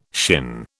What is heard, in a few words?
"shin", British